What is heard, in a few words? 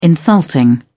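"insulting", British English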